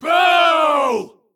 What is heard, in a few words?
Male Ganarg Attack